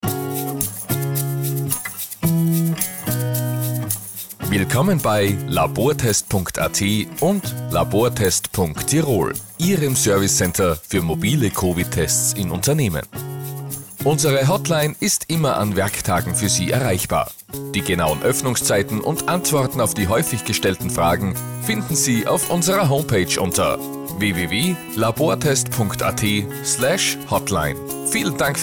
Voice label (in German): Nachtansage; Labortest Tirol; telefonansagen sonstige